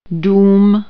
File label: "house", czech